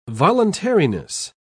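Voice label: "voluntariness", British English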